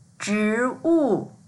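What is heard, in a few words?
"zhi2wu4", Mandarin Chinese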